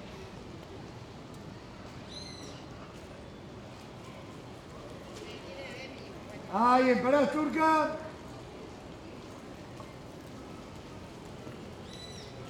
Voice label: vendedores empanadas turcas

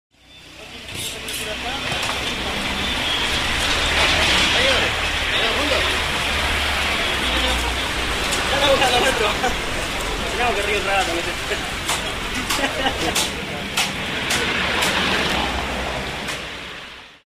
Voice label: vendedor pescados puestos balneario; la florida

